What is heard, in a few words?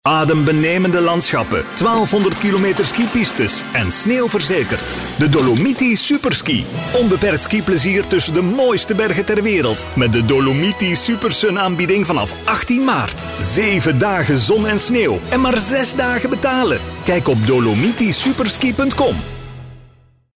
Flemish medium hard sell